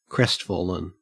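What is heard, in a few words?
"crestfallen", Australian English; pronunciations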